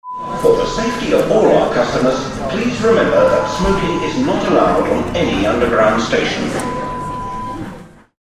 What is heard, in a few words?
Announcement sound